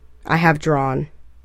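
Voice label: "I have drawn", English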